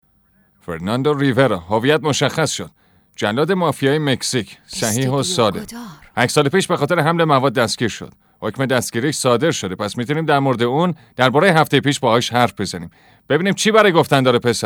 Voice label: dub